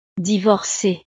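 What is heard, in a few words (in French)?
"divorcee", French; familia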